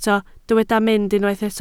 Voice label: "mynd", Welsh